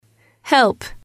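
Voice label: "help", English, female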